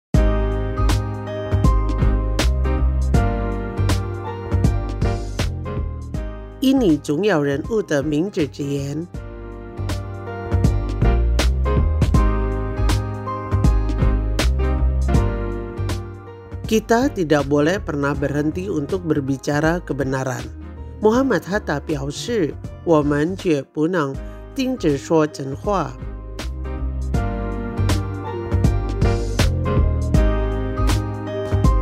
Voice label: Mandarin; Kata Bijak